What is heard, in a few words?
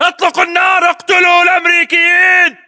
order attack infantry, battlechatter, english